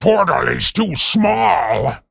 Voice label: Male Imp Play

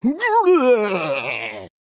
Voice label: Male Murloc

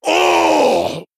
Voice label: Male Troll Death

